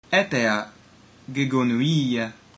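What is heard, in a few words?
I'm years old, ancient Greek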